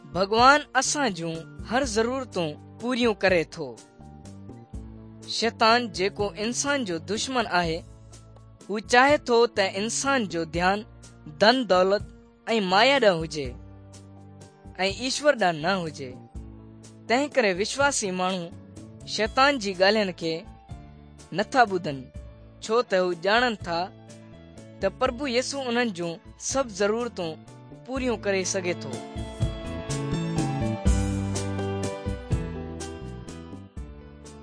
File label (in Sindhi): good news sindhi dukslinu, drama